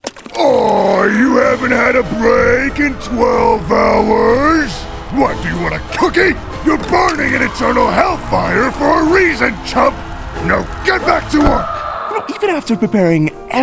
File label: Character